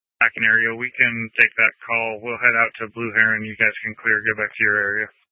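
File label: Incident Tactical 1, Scanner audio recording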